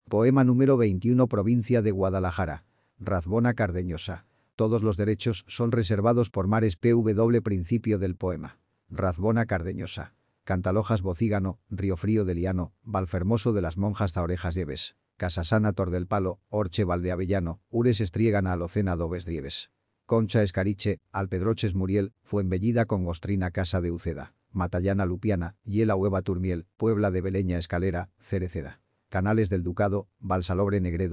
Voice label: es male